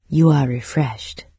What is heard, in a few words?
refreshed, en-UK, NARRATOR; Fate